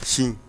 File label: "sin", Bambara; corps